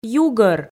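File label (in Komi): "югӧр", Komi, female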